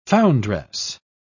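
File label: "foundress", English, British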